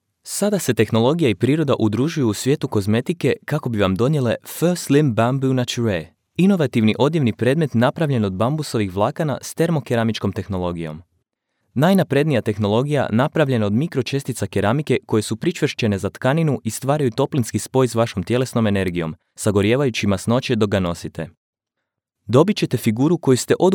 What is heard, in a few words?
presentation, croatian